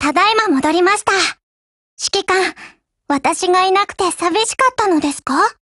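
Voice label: OPERATION OVER Japanese, Voice; GF Alarm; ChauchatM1915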